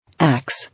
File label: "Axe", English